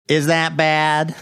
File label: saying is that bad nasally voice